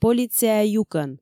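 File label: "полиция юкӧн", Komi, female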